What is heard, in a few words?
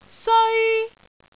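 "seoi1", Cantonese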